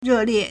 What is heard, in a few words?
"re4 lie4", Chinese